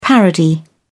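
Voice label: "parody", British English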